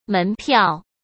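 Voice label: "门票", Mandarin Chinese; chinese-flashcards; hsk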